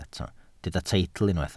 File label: "teitl", Welsh